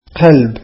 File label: arabic heart